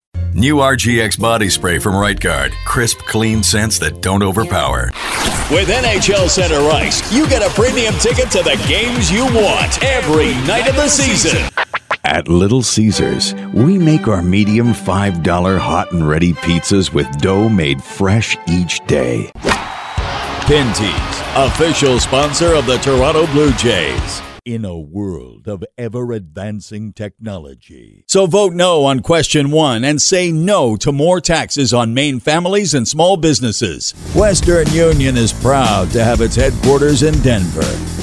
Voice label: Commercial Male; commercial voiceover